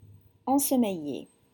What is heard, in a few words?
"ensommeillé", French